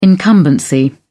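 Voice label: "incumbency", English, British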